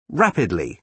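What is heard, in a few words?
"rapidly", British English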